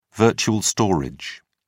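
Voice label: "virtual storage", English, British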